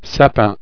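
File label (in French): "sapin", French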